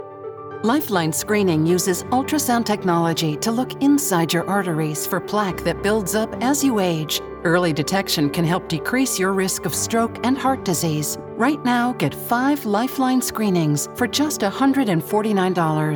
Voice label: medical screening spot; Medical Narration Voice Over

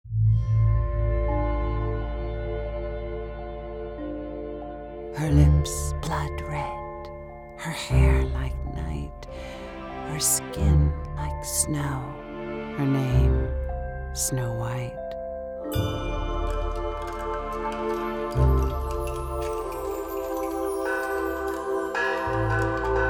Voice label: Eerie Soft Poetic Mysterious; Voice Over; Snow White

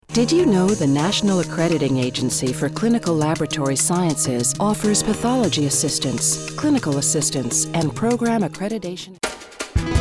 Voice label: On Hold

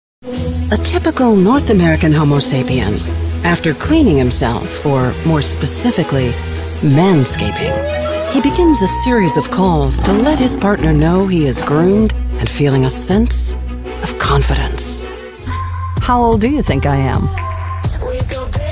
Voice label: Commercial

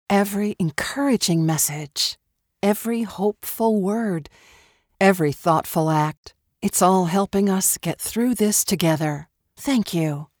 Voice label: COVID Encouraging PSA

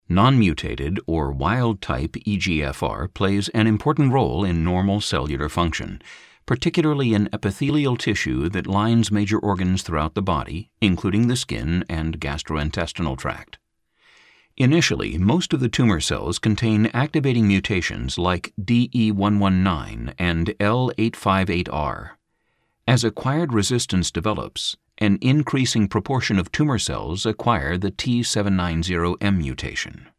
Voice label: casting Deep Rich Mature

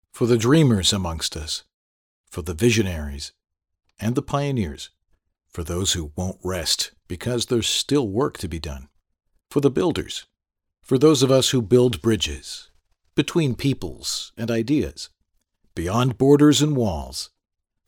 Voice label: casting Real Casual

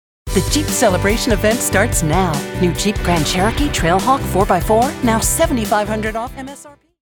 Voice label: High Energy Auto; Jeep

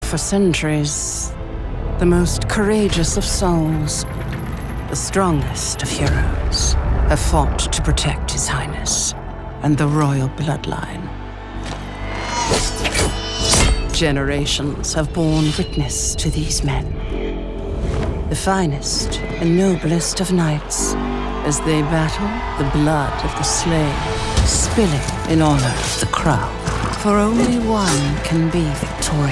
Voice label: Evil Queen; Medieval Times

